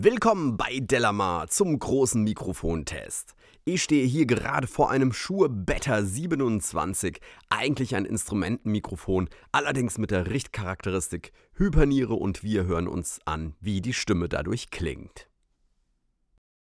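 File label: speech male; shure beta27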